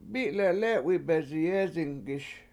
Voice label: mI7le7 lE7wi beziyEzinggiS I Ate A Little Bit Of Everything, Washo